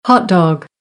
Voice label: "hotdog", American; ɑː - ɒ